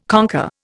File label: "conquer", Indian